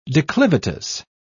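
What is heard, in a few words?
"declivitous", British English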